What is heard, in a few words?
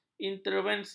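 "intervensi", Malay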